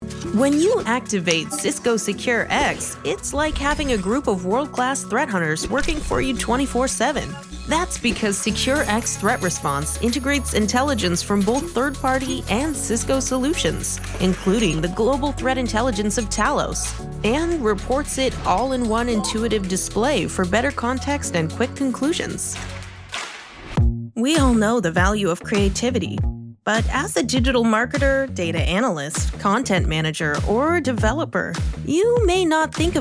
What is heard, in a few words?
Voice Over Corporate Demo Reel